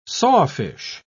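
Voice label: "sawfish", English, British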